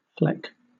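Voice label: "fleck", British English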